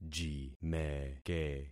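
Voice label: "jimeke", concatenated syllables; Affixing experiment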